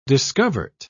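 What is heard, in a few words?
"discovert", English, British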